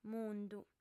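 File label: "mundu", female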